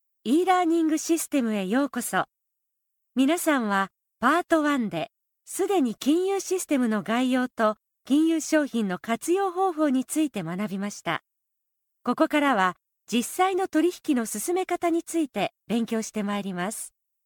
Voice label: Narration